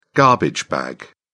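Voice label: "garbage bag", English, British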